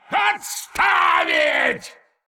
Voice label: Male Qiraji Attack

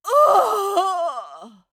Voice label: Female Human Death; Hearthstone; датамайн обновления 5.0

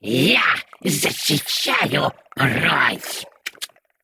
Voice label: Male Klaxxi Play; Hearthstone; датамайн обновления 5.0

